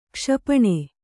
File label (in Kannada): "ಕ್ಷಿಪಣಿ", Kannada